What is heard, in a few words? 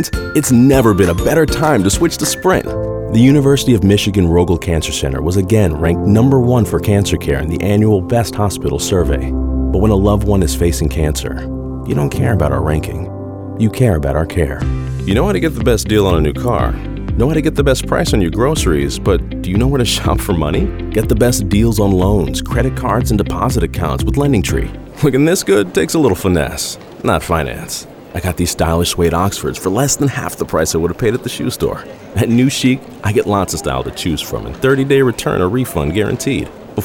Commercial - Business Casual Voice Overs